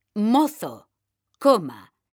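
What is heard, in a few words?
"mozo", Spanish, female; Mekanta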